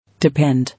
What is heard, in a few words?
"Depend", English